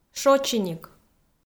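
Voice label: "шочиник", Komi, female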